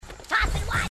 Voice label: tossin one, grunts